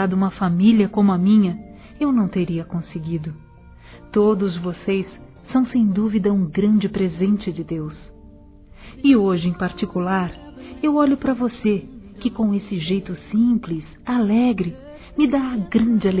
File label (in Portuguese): Aniversário Neto Feminino, Telemensagens; Aniversários; Aniv Familiar